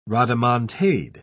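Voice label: "rodomontade", British English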